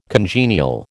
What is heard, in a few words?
"congenial", American